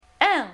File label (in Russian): [ε] носовое, francais Phonetique Voyelles; Lingvo